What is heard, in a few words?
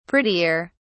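"prettier", British English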